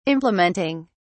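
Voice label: "implementing", British English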